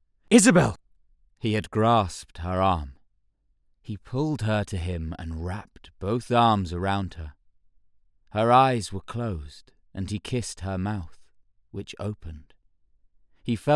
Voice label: Erotic Sample